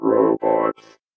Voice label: VOICEFX ELCTR 140; Techno2